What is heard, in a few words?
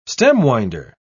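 "stem-winder", British English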